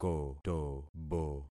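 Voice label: "kotobo", concatenated syllables; Affixing experiment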